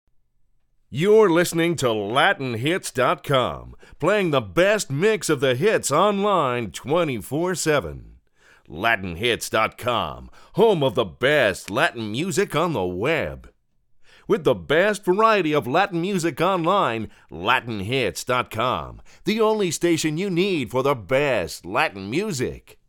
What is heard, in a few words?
Radio Image